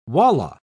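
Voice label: "walla", British English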